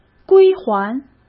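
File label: "归还", Mandarin Chinese; chinese-flashcards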